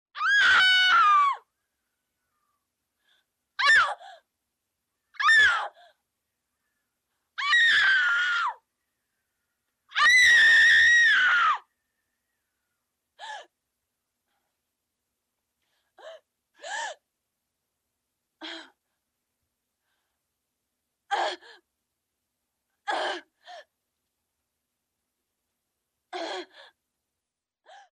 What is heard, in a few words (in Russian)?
pacientka napugana; Звуки сумасшедшего; звуки, которые издают психи в фильмах страшилках